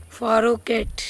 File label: "phoroket Noe", andamanese